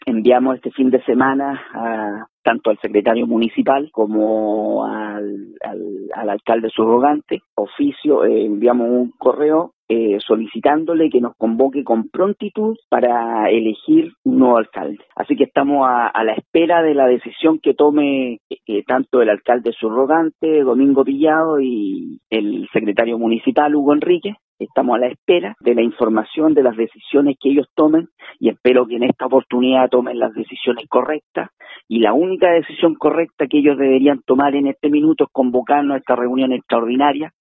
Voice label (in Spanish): CUÑA